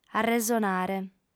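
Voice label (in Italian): "arresonare", sarda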